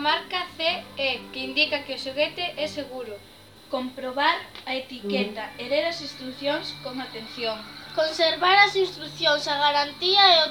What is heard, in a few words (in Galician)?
anuncio xoguetes